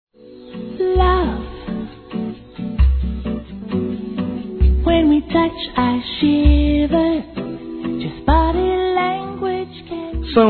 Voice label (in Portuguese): Bodas de Prata Romântica Masculino; Telemensagens; Telemensagens 2017